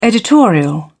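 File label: "editorial", British English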